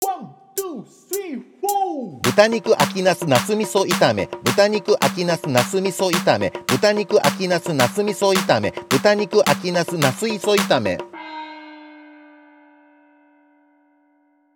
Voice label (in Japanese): 早口言葉リズム（中級編）